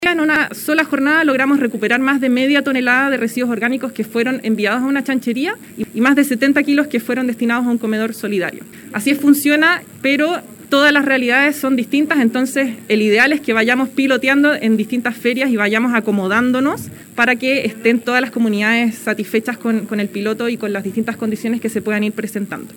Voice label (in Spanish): cuña